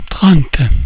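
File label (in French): "trente", French; cat numeration doigts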